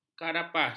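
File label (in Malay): "karapas", Malay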